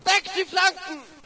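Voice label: German cover flanks; video games; player; source engine; dod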